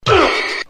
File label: "uh", male